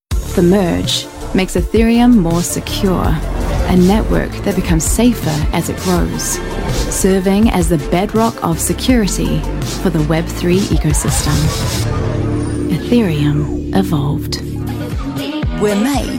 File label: International Global Accent Commercial; en-AU